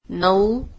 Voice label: "nine", Marathi